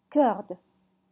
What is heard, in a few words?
"corde", French